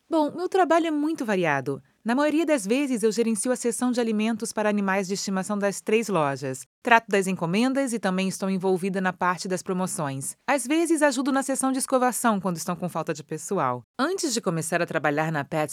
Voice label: brazilian f narration, portuguese bra voice; voice demos

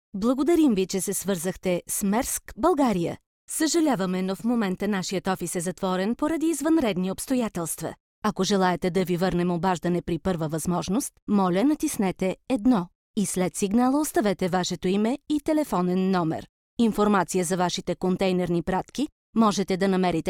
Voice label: bulgarian f ivr; voice demos

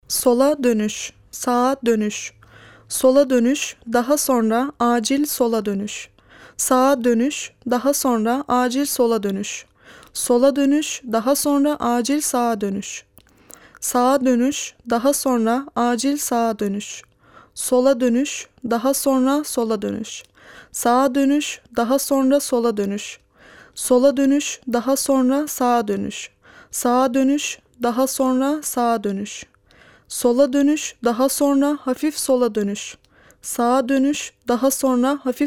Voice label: turkish f ivr; voice demos

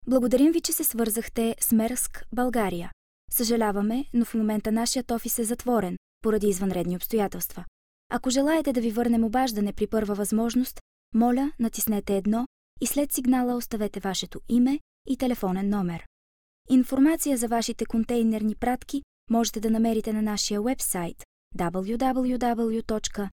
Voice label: bulgarian f ivr, voice; voice demos

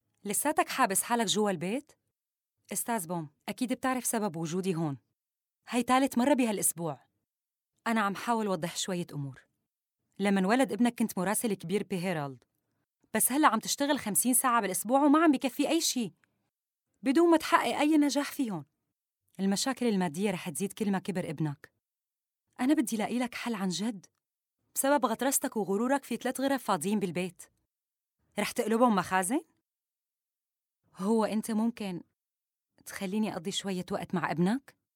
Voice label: arabic syrian f narration; voice demos

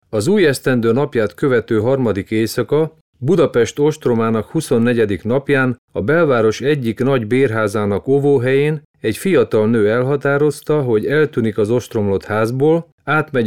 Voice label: hungarian m narration; voice demos

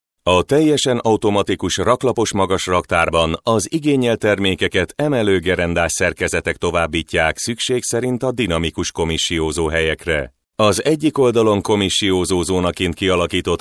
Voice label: hungarian m narration; voice demos

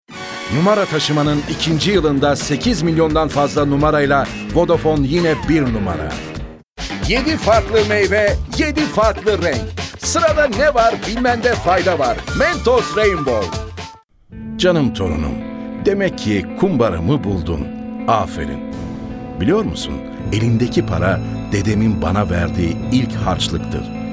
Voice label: turkish m commercial

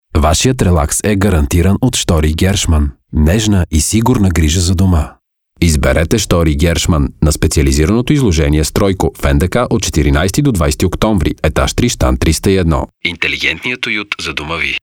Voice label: bulgarian m commercial; voice demos

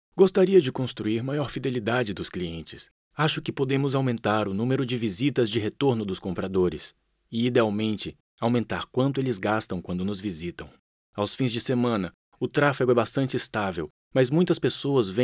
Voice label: brazilian m ivr, portuguese bra voice; voice demos

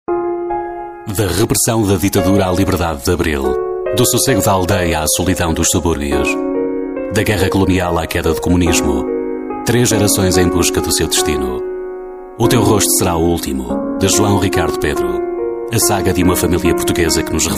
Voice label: portuguese m commercial; voice demos

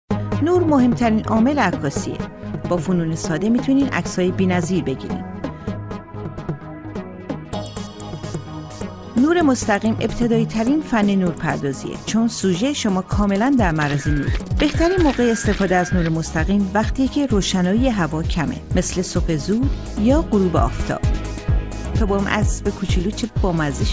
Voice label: farsi f commercial; voice demos

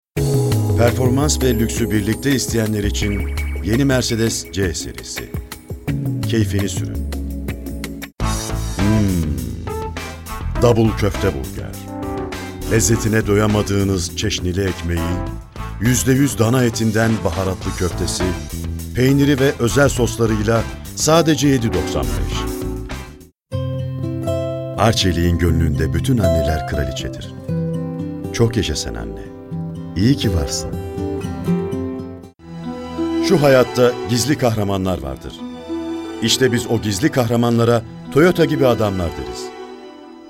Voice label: turkish m commercial

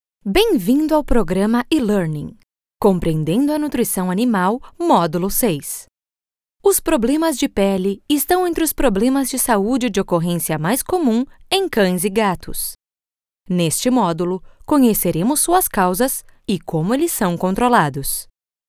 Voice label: brazilian f learning, portuguese bra voice

